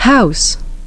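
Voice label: "house", English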